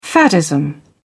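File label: "faddism", British English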